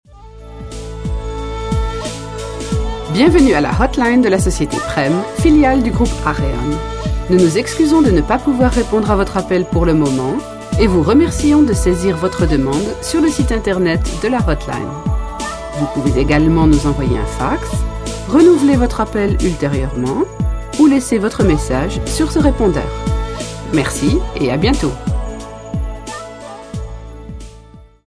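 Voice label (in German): Ansage bei Besetzt französisch; aareon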